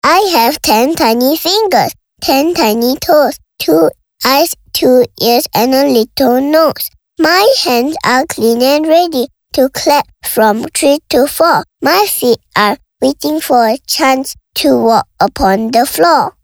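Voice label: English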